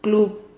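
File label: "club", Spanish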